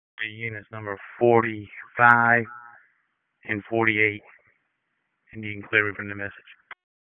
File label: WC EMS DISP1, P25 recordings; wake